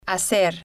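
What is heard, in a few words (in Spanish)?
"hacer", spanish, female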